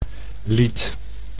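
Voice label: "lied", Dutch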